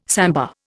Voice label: "samba", Indian

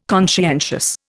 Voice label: "conscientious", Indian

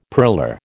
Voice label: "purler", American

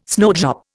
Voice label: "snowdrop", Indian

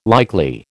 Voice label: "likely", American

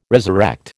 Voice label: "resurrect", American

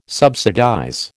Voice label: "subsidize", American

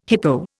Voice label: "hippo", Indian

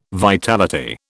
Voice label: "vitality", British

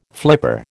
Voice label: "flipper", American

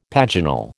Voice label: "paginal", American

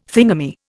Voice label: "thingummy", Indian

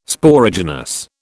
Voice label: "Sporogenous", British

